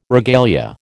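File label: "regalia", American English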